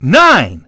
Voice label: dj, voice; Nintendo; July 2020 Gigaleak; VSLAP VOICE